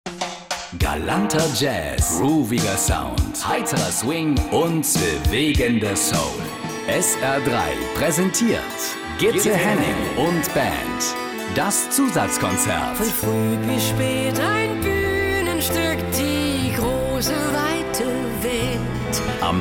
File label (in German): Trailer